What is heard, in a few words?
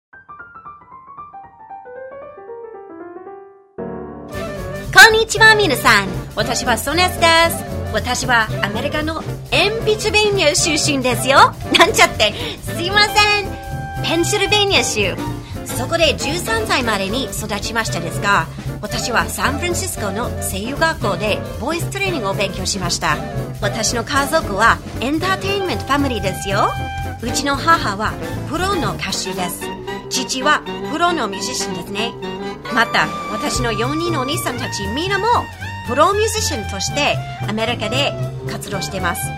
Japanese; USA